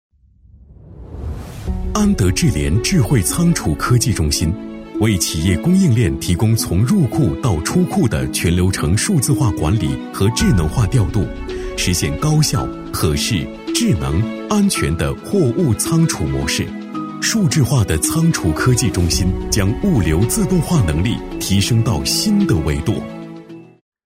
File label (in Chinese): 男 专题配音 时尚科技; 大气主流; 科技时尚沉稳大气